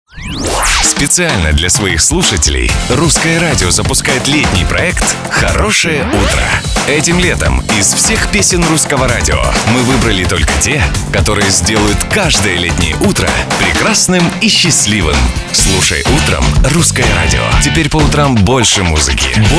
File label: rus, men